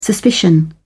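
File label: "suspicion", British English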